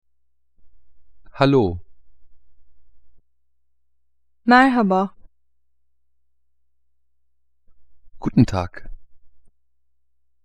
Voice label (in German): Tuerkisch